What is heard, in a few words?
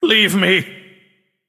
Male Nightborne Attack